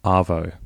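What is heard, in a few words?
"arvo" English, Australian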